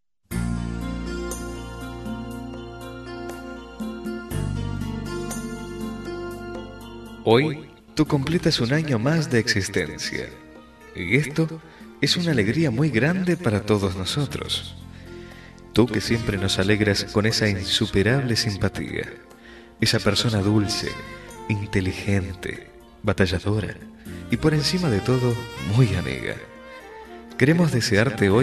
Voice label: Cumpleaños, Espanhol, Masc; Variadas em Espanhol

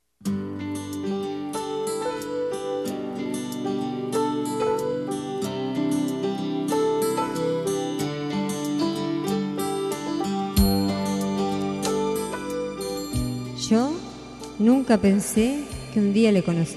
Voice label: Declaracion Feminino, Espanhol; Variadas em Espanhol

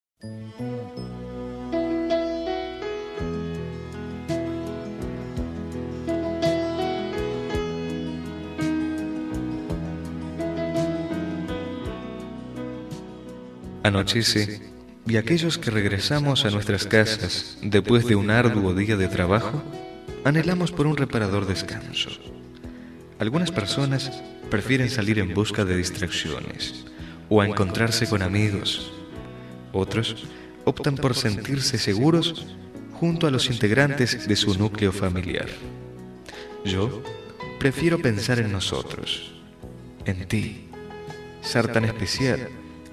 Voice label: Buenas noches, Variadas em Espanhol, Masc; rom.

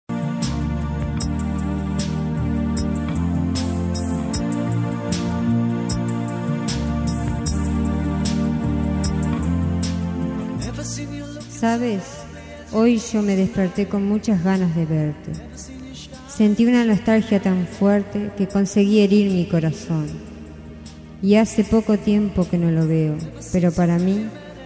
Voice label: Yo te Quero Mucho Feminino, Espanhol; Variadas em Espanhol

